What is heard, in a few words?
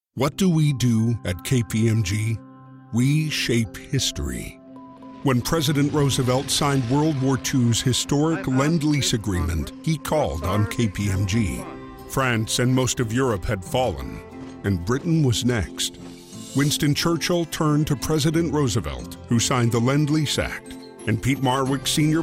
english american corporate videos